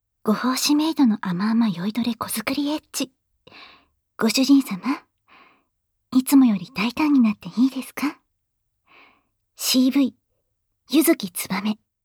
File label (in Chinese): 標題朗讀♪